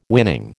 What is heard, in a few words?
"winning", American